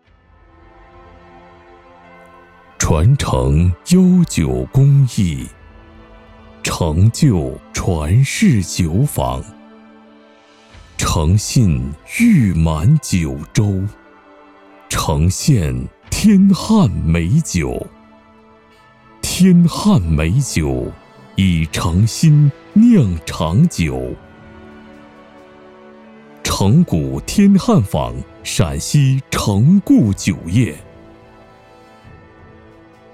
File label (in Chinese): 酒类陕西; 天汉坊